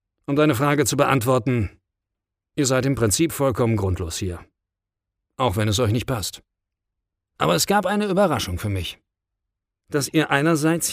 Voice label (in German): Hörbuch; Axel Hacke Der Tag den ich mit Gott verbrachte